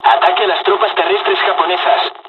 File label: takeoff, dialogs spanish; War Thunder